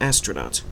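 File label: "astronaut", Canadian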